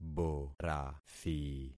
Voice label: "borathi", concat syllables; Affixing experiment

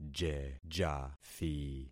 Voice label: "jejathi", concat syllables; Affixing experiment

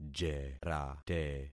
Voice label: "jerate", concat syllables; Affixing experiment

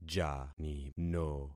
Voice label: "janino", concat syllables; Affixing experiment

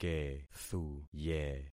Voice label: "kethuye", concat syllables; Affixing experiment

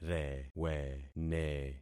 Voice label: "rewene", concat syllables; Affixing experiment

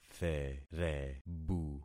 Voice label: "therebu", concat syllables; Affixing experiment

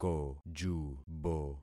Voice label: "kojubo", concat syllables; Affixing experiment

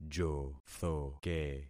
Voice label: "jothoke", concat syllables; Affixing experiment

